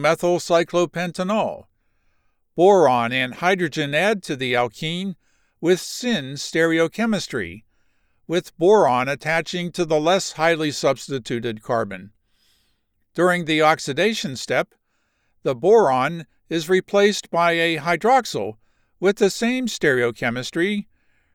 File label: Chemistry Hydroboration, science medical narration